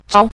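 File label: "zao", Mandarin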